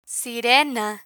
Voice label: "sirena", spanish; medical